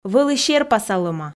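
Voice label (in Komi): "вӧлі серпасалӧма", Komi, female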